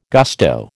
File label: "gusto", American